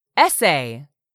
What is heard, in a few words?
"ESSAY", english; 3000 words